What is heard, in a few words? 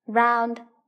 "round", English